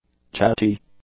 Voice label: "chatty", English; adjectives

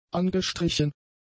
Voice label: "angestrichen", German; adjectives

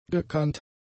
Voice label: "gekannt", German; adjectives

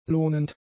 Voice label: "lohnend", German; adjectives

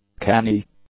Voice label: "canny", English; adjectives

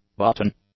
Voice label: "button", English; nouns

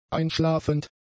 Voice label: "einschlafend", German; adjectives

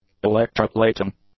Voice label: "electroplating", English; nouns

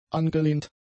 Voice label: "angelehnt", German; adjectives

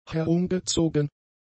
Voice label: "herumgezogen", German; adjectives

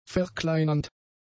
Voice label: "verkleinernd", German; adjectives

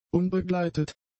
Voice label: "unbegleitet", German; adjectives

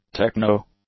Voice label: "techno", english; nouns

